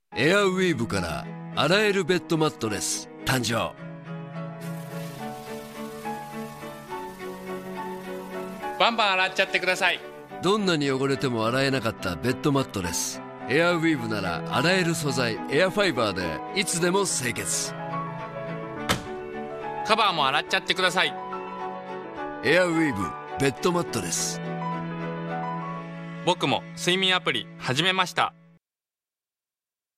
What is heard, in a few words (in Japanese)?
ナレーションサンプル; エアウィーヴ